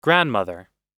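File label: "grandmother", American English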